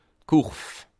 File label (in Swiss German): "kurv", alsace